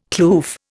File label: "kloof", Indian